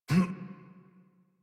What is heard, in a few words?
Adult Operator Jump, en; Warframe; New War